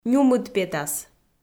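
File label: "нюмыд петас", Komi, female; kpv audio dict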